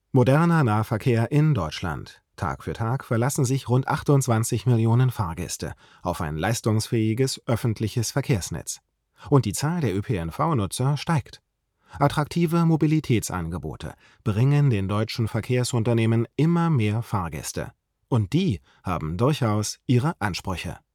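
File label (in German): U87 bearbeitet morgens, Sprecherraum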